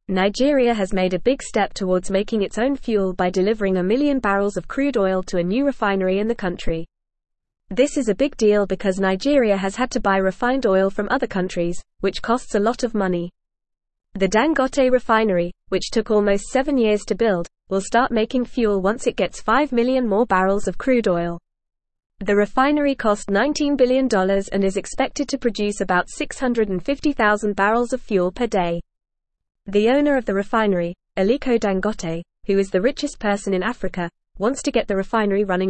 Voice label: English Newsroom Upper Intermediate FAST Reading; Nigeria Takes Step Towards Energy Self Sufficiency